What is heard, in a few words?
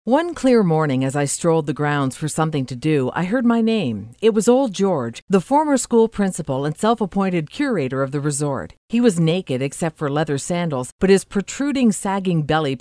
Audiobook